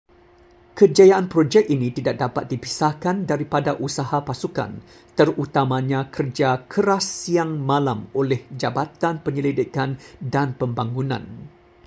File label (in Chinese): 马来语; Kejayaan projek ini tidak dapat dipisahkan daripada usaha pasukan, terutamanya kerj